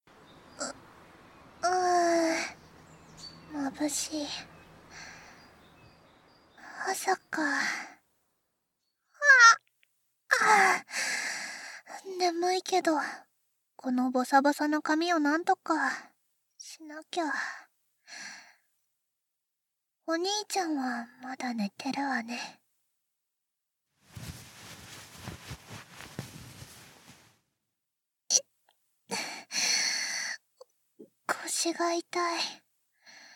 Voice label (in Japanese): ボイスドラマ; メルルのえっちなボイスドラマ